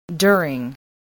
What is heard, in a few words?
"during", English